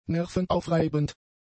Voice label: "nervenaufreibend", German; adjectives

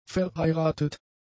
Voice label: "verheiratet", German; adjectives

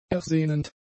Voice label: "ersehnend", German; adjectives

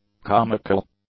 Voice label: "comical", English; adjectives

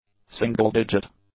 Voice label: "single-digit", English; adjectives

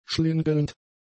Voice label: "schlingelnd", German; adjectives

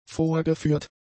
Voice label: "vorgeführt", German; adjectives

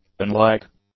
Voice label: "unlike", English; adjectives

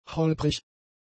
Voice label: "holprig", German; adjectives

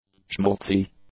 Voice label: "schmaltzy", English; adjectives

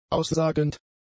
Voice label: "aussagend", German; adjectives

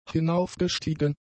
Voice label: "hinaufgestiegen", German; adjectives

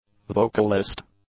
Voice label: "vocalist", English

